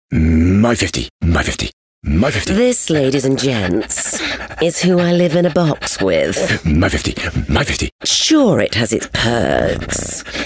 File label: Character Commercials